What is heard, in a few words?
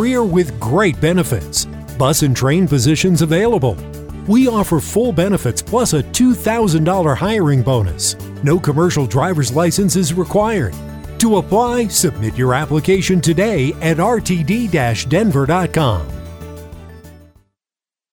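on-hold, male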